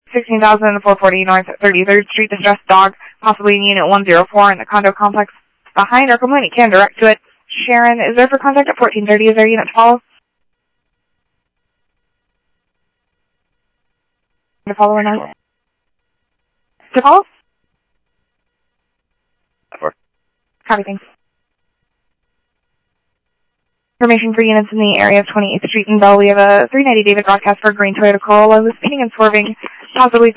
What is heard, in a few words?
Phoenix PD, scanner audio